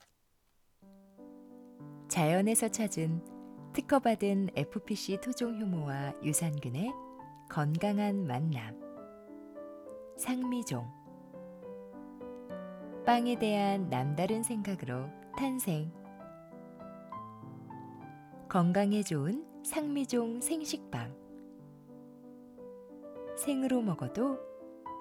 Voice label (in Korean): 광고 따뜻한 30대